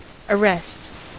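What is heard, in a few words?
"arrest", American English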